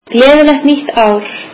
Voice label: icelandic Happy new year